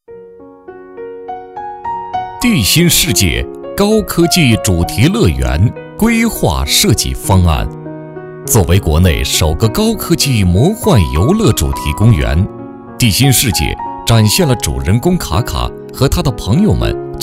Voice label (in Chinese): 男 设计方案 主题乐园规划